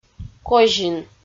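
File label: "козин" Komi, female; audio dict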